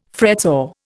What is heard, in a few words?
"fretsaw", Indian